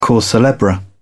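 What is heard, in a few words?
"cause celebre", British English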